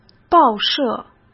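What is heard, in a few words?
"报社", Mandarin Chinese; hsk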